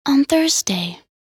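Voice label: voc en week, English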